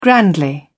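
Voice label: "grandly", English, British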